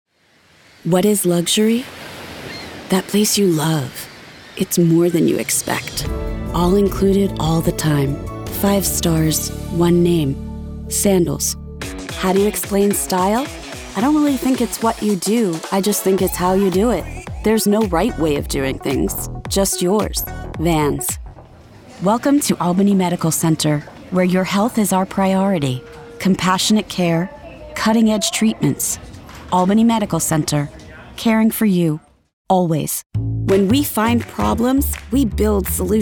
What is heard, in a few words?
Narration; voice over